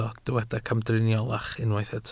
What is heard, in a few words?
"camdriniolach", Welsh